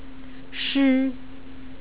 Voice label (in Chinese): "shi", Chinese pronunciation